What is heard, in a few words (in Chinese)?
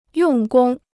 "用功", Chinese